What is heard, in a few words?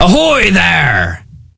greet Pirate; rage of the seas